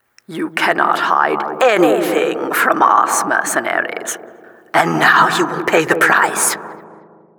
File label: announcer mission begins 60s